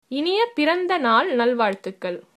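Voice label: birthday, tamil, female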